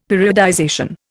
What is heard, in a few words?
"periodization", Indian; Dictionary